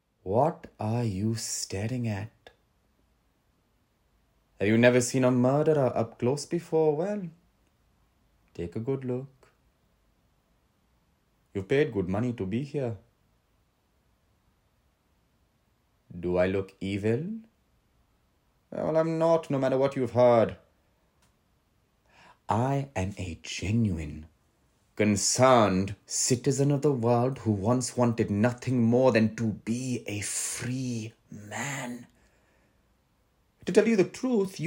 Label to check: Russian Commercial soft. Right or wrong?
Wrong